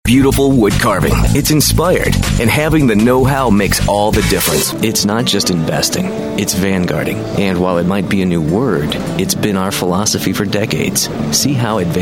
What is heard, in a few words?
commercial, men